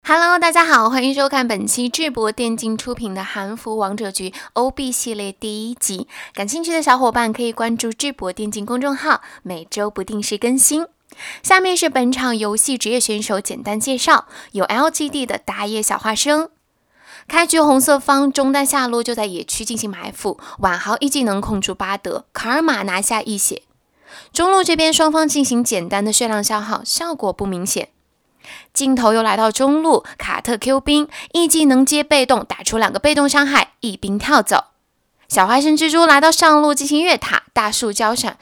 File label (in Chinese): 女 短视频游戏解说